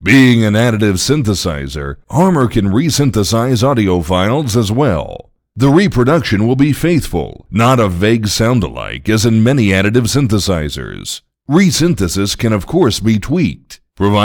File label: Harmor speech - resynthesis; Generators